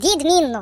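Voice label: "Excellent", British; hedgewars; Default uk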